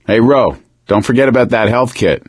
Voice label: suggest health, survivor voice; Left 4 Dead 2; Source Engine; video games; gambler

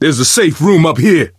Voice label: misc directional, coach, survivor voice

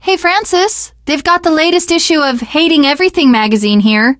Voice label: magazine rack, teen girl; video game; Left 4 Dead; Source Engine; survivor

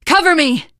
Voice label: cover me, teengirl; survivor voice

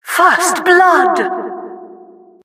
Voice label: announcer first blood

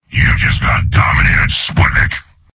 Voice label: soldier domination heavy; source engine; tf; mvm

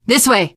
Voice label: follow me, teen girl; survivor voice

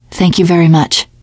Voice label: thanks, teen girl

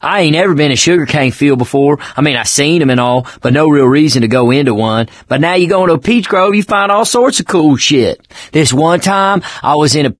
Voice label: stories, mechanic; ellis; Left 4 Dead 2; Source Engine; video games

